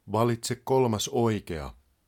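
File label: third right, Finnish, male; Opas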